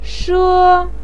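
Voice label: "she", Chinese pinyin, first tone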